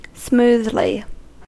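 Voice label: "smoothly", American English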